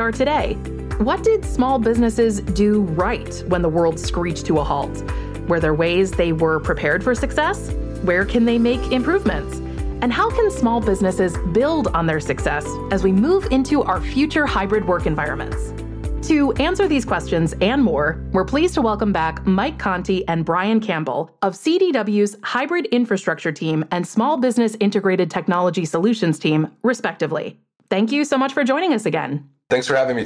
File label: Corporate Podcast Host